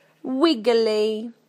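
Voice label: "wiggly", British English; PictureDictionary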